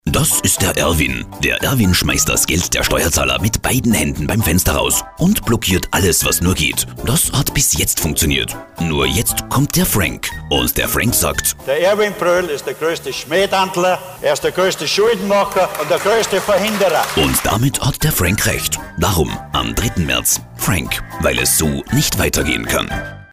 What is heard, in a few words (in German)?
Radiospot; Niederoesterreich